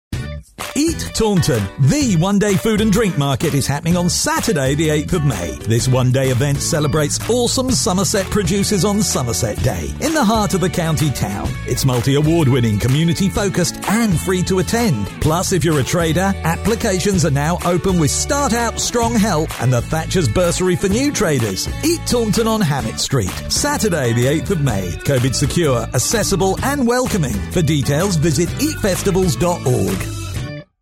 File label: commercial airtime; business plus; eat taunton